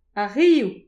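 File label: "ar riv", Breton; petit precis de prononciation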